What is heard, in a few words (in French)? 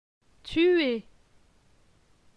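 "Tuer", French; phonetique; les voyelles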